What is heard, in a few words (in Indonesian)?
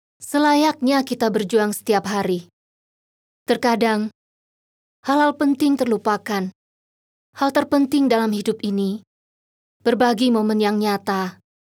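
f ID TVC, female Indonesian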